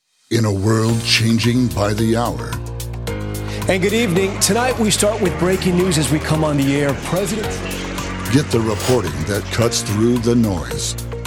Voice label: Promo

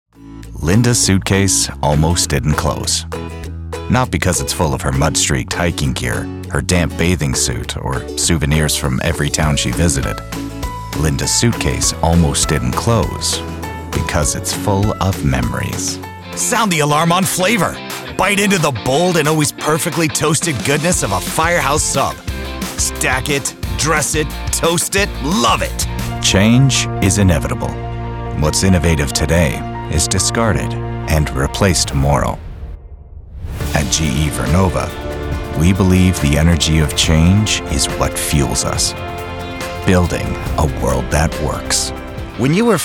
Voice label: Commercial

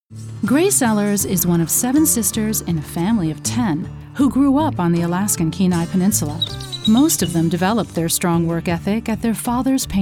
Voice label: documentary

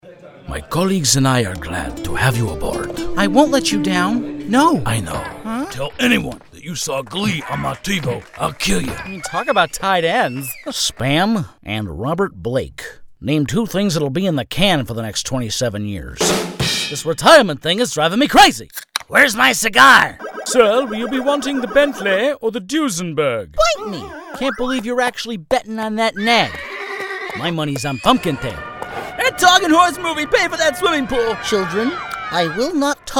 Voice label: character

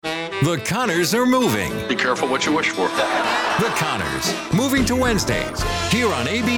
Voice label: TV Promos

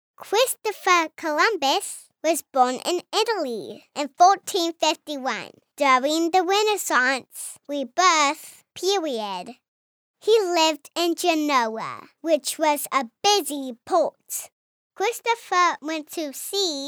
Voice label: Narration

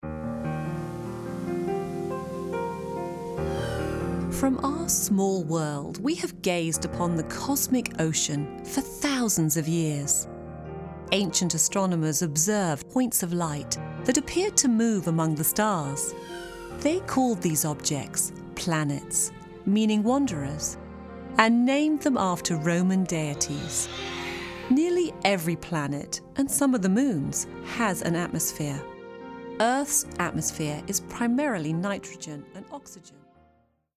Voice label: Narration British Accent

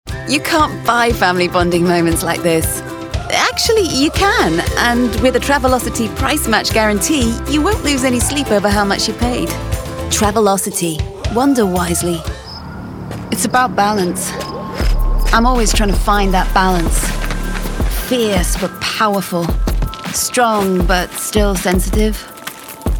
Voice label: Commercial British

